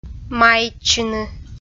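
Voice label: "маитчыны", Komi, female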